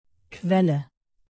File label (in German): quelle, alphabet de native